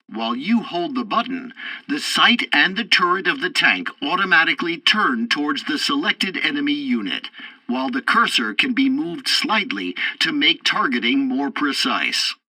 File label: tutorial tank arcade target camera, english; War Thunder